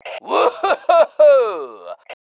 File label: "WOOHOO", male